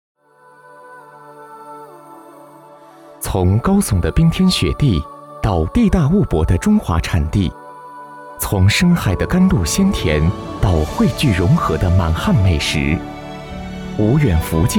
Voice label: 男 专题 年轻品质讲述

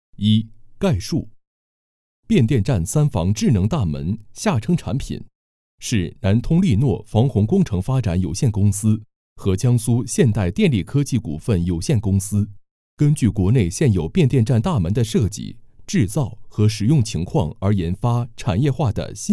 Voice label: 男 课件 变电站大门

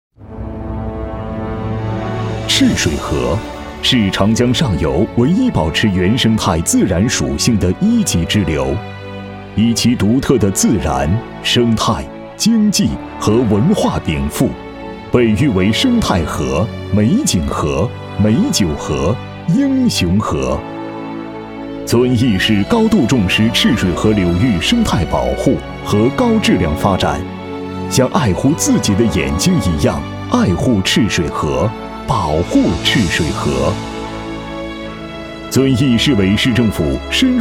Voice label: 男, 专题汇报, 稳重, 共抓生态保护, 同护绿水青山

